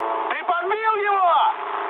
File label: player killed enemy, mood med, speech ru